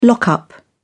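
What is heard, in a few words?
"lock up", English, British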